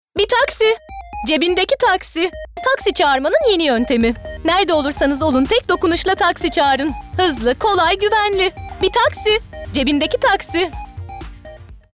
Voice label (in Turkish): Neseli Dinamik